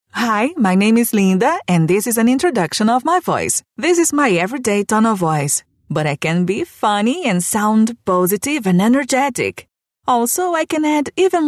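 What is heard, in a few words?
Brazilian Portuguese, female, English Sample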